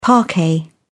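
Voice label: "parquet", British English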